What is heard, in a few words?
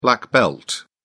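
"black belt", British English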